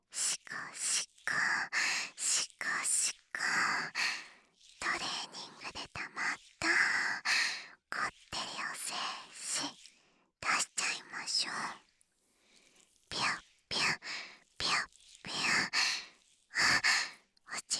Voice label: エロエロオイルマッサージ, ASMR; 貴方の疲れを癒してしこしこです！; 天才ロリ艦長の巧みな恋愛求婚アピールでHに求められる日々☆(可愛い、オホ声)

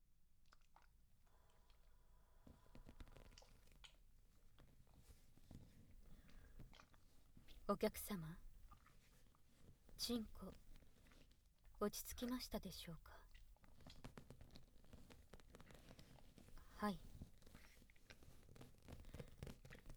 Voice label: 前立腺マッサージ, ASMR; BGMなし; フタナリ!ちんこマッサージ店

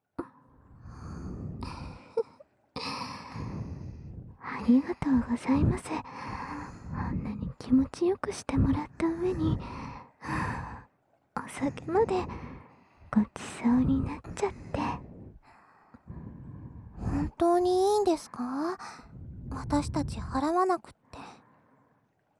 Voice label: 密着添い寝でゆっくり快眠

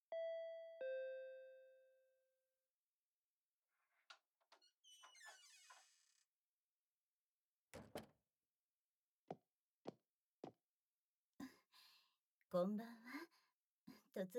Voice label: 耳舐め手コキ, ASMR; お隣の若奥様がおすそ分けを持ってきてくれた。ついでに誘惑された; お隣の清楚な若奥様は欲求不満 ～旦那のチ〇ポちじゃ満足できない彼女は、俺との不倫エッチに溺れていく…

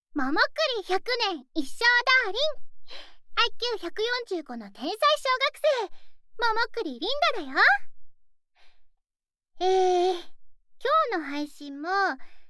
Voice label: 耳元ざこざこ; ざこざこだーりん; VTuberに転声してみた 〜山本希望→桃栗りんだ〜

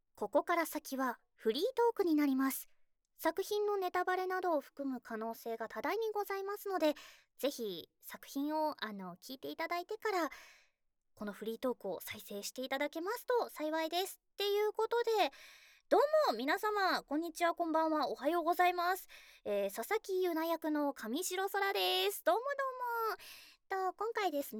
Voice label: フリートーク

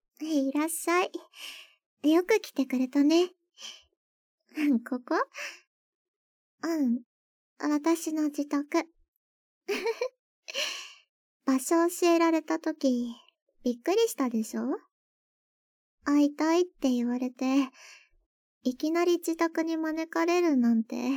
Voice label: オホ声混じりの生ハメえっち(耳舐め・オホ声・耳舐めと指で両耳責め・乳首責め・ベロキス・中出し)効果音なし

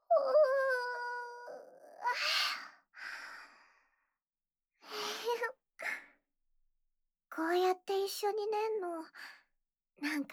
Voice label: ラブラブささやきボイス, ASMR

